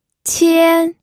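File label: "qian", Mandarin Chinese, first tone; pinyin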